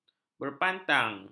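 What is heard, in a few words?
"berpantang", Malay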